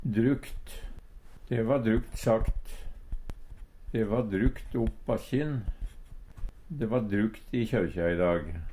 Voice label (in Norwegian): "drukt", Numedalsmål